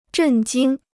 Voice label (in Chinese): "震惊", Chinese